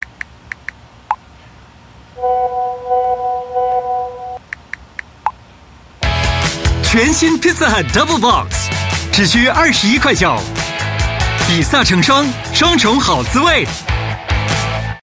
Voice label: Mandarin Hard Sell, Mandarin China Male; Pizza Hut DoubleBox